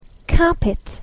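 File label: "carpet", English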